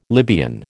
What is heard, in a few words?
"Libyan", American